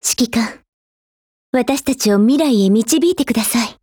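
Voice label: go attack, Japanese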